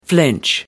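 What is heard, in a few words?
"flinch", British English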